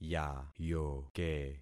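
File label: "yayoke", concatenated syllables; Affixing experiment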